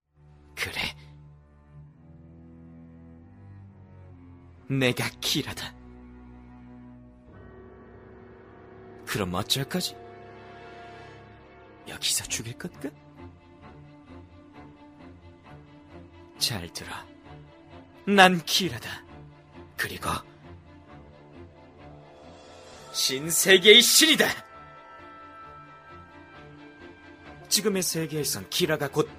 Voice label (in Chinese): 韩语男声 游戏信息流